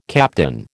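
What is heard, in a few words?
"captain", American English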